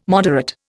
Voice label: "moderate", Indian